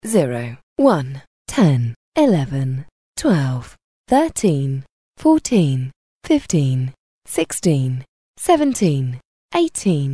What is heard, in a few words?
Dealer Voice, sk, Roulette; real Dealer European Roulette Vintage; en